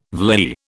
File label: "vlei", British